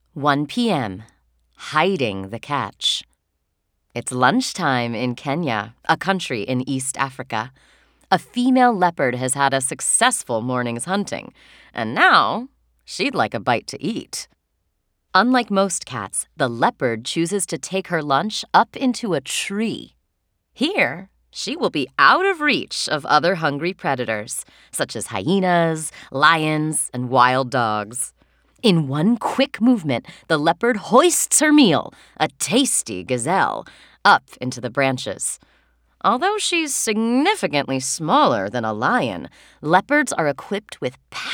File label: Narration Sample Young Readers Nonfiction